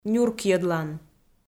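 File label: "нюркйӧдлан", Komi, female; kpv audio dict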